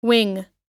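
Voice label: "wing", English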